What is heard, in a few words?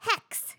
hex, RPG Voice; RPG Voice Starter Pack